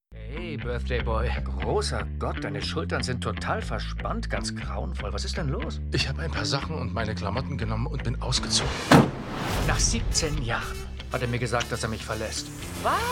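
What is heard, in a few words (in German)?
Synchron, Sprecher, Männer; Uncoupled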